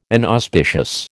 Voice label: "inauspicious", American